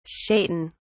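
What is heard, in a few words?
"shaitan", British English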